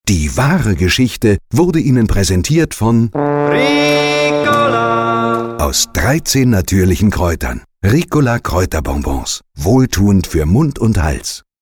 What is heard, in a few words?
Radio Presenting; Ricola